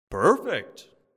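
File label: announcer "perfect"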